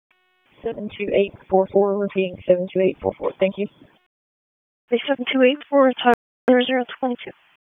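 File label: Police District Dispatch; Raleigh Police Northeast District Disp; wake p25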